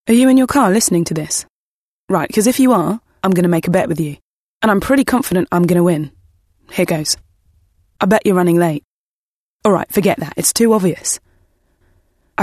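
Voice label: commercial, home studios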